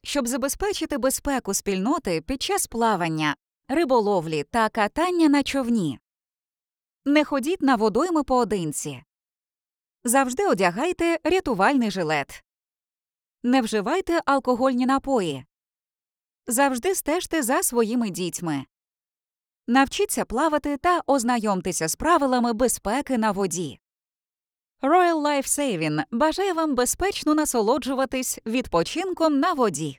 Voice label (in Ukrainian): Ukrainian Radio; Water Safety Community Announcement; Royal Life Saving Australia